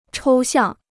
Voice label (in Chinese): "抽象", Chinese